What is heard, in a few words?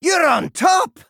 Male Dwarf First Place